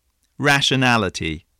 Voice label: "rationality", english